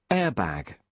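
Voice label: "airbag", British English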